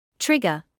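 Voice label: "trigger", British English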